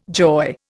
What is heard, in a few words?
"joy", Indian